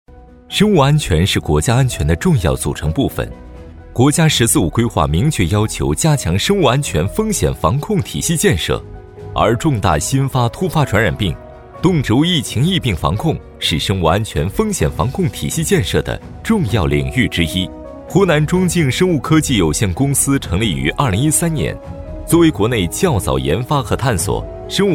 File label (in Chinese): 男 宣传片; 湖南中净生物科技有限公司